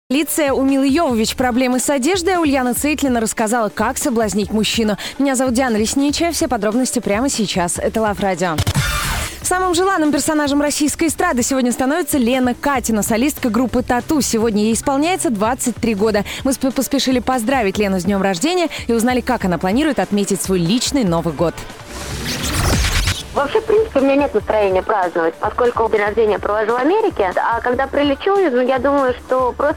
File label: Radio; Love Radio 106 FM